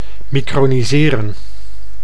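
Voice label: "microniseren", Dutch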